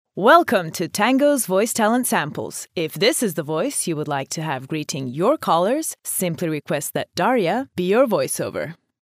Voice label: ivr, english USA

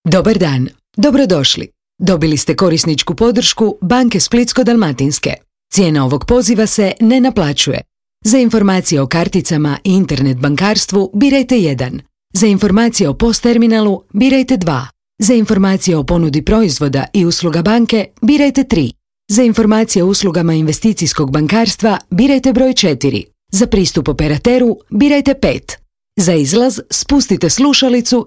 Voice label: Phone Secretary, croatian

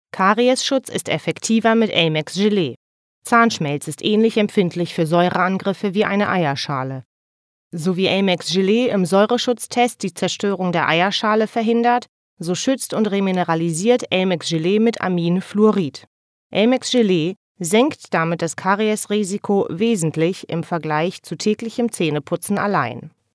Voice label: TVC, german

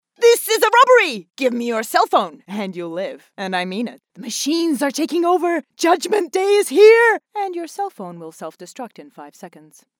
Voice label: ringtone, english USA

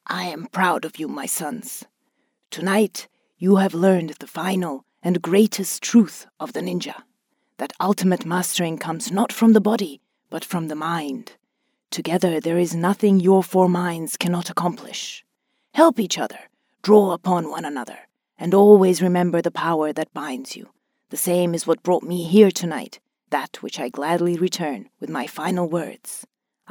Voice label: cartoon, english, USA

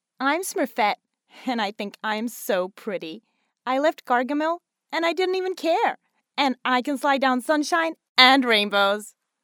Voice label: cartoon soft, english

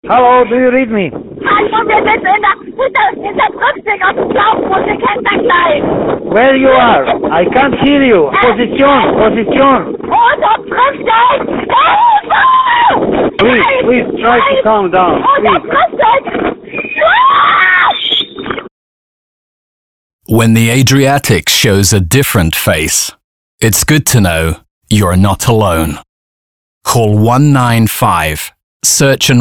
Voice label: radio commercial, english; Search and Rescue at Sea

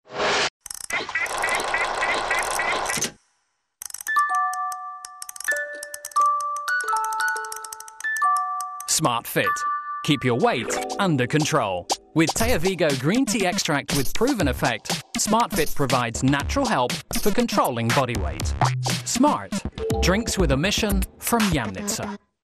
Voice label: commercial, english

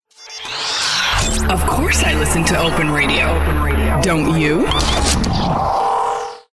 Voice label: radio commercial, english USA

